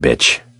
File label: jeers; tf; jc